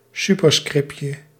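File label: "superscriptje", Dutch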